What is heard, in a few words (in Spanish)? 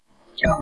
Voice Acting es